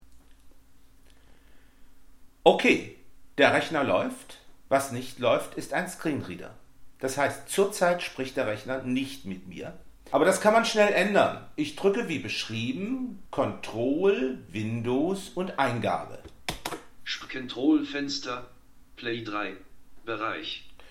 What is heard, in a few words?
narrator